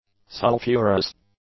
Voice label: "sulphurous", English; adjectives